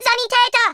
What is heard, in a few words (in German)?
"ouch", German; speech